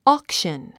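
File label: "auction", English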